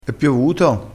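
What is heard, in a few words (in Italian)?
"passata", dialettale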